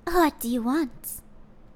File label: "What Do You Want", human girl evil; customnpcs